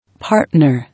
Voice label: "Partner", English